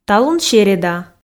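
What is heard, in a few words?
"талун серӧда", Komi, female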